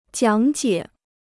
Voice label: "讲解", Chinese